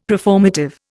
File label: "preformative", Indian; Dictionary